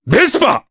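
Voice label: voice, Japanese; Baudouin; kingsraid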